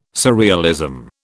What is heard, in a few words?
"surrealism", British